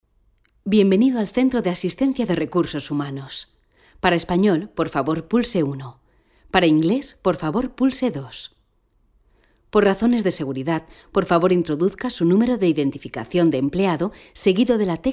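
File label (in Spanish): TELEFONÍA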